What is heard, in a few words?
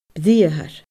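"briathar", Scottish Gaelic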